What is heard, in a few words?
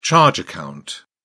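"charge account", British English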